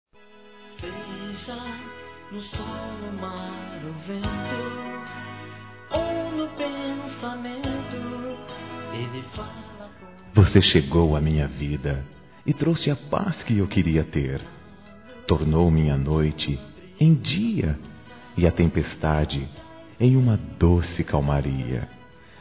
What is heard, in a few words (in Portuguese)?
Trilha ROMANTICA SUAVE, Gospel, masc; Telemensagens